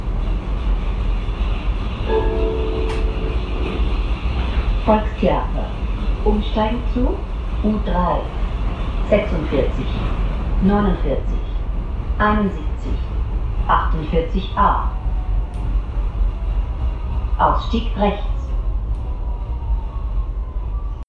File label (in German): Durchsage in U-Bahn